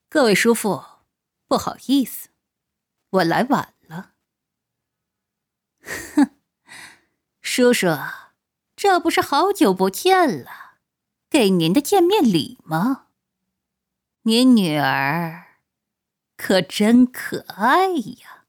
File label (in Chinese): 黑帮 大姐头 现代 霸气 御姐